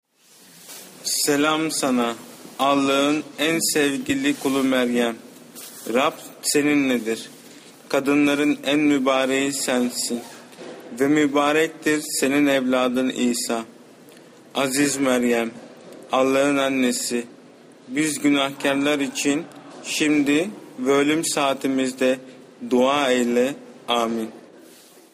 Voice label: ave maria turco lento

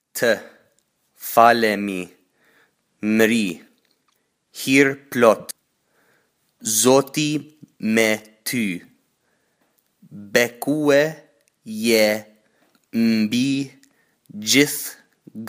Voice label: ave maria Albanes pausado

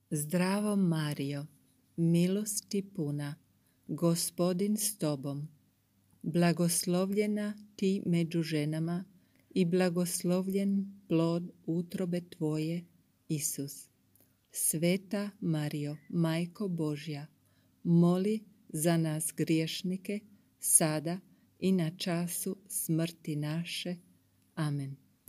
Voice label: Croata Ave Maria slow; ave maria idiomas

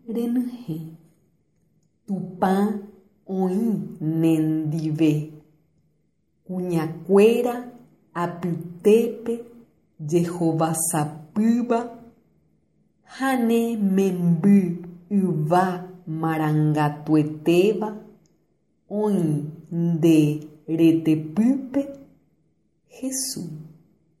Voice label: ave maria guarani lento; ave maria idiomas

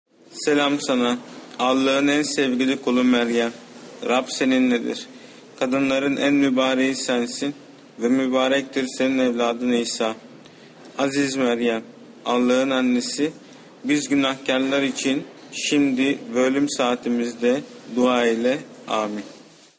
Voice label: ave maria turco normal

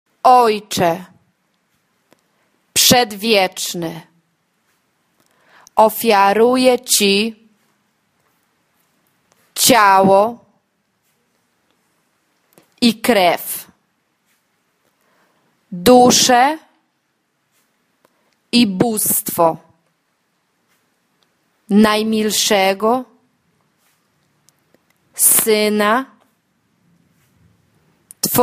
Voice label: padre eterno pausado, divina misericordia polaco

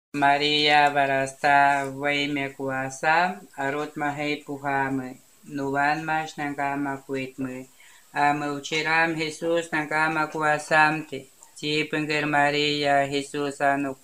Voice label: ave maria shuar lento

